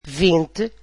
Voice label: "twenty", Portuguese